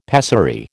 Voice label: "pessary", American